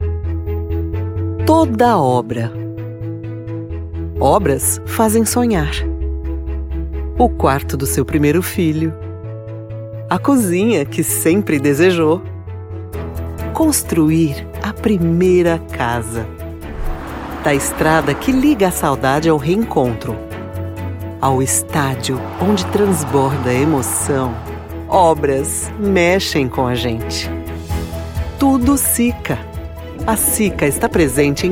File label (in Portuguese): video institucional trilha locução corporativa